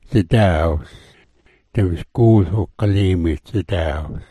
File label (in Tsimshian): "dzidaawl", Sm'algyax